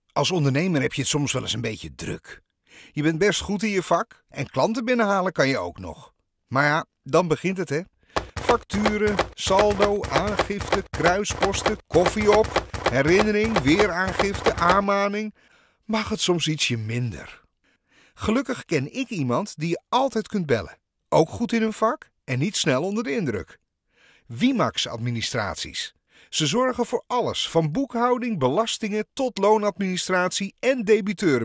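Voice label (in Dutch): Nederlandse voice-over stemacteur man, Dutch male voice actor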